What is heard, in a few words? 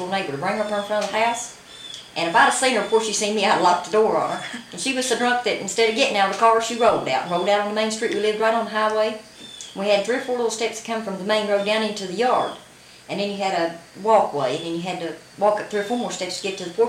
Conversation with 27 year old white female West Virginia; american english dialect